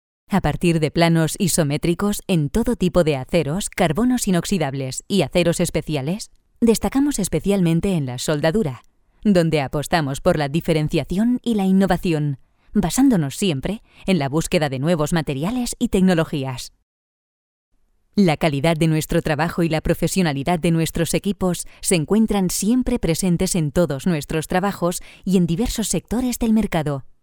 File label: spanish female